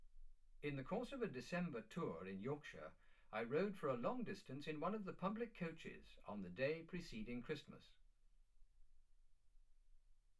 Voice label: male speech english